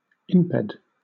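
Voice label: "imped", British English; pronunciations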